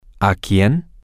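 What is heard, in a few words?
to whom, Spanish, male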